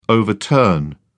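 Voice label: "overturn", English, British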